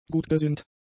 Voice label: "gutgesinnt", German; adjectives